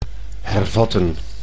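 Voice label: "hervatten", Dutch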